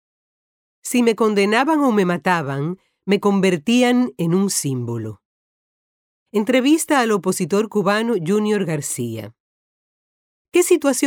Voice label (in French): Espagnol